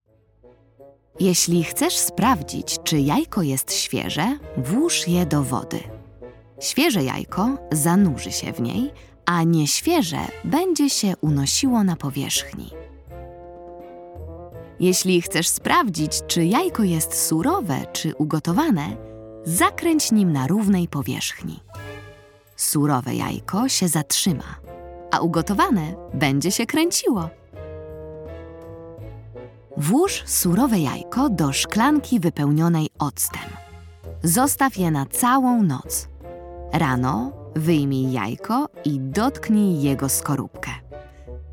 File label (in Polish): narracja; czasopismo mis; lato; eksperyment opis